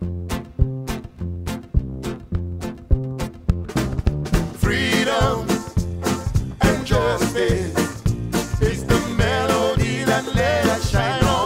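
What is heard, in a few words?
jingle Romanian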